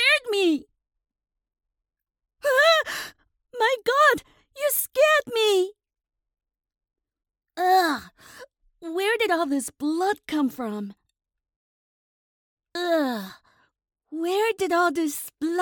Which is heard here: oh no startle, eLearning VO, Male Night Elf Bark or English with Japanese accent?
English with Japanese accent